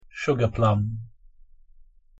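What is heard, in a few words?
"sugarplum", English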